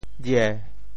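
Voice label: "jie7", Teochew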